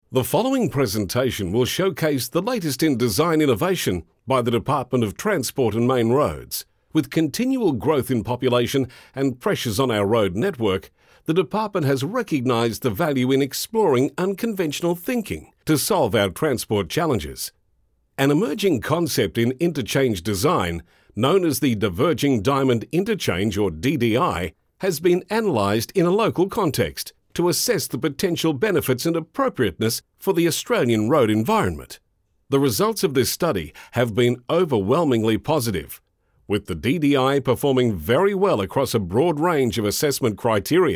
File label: corporate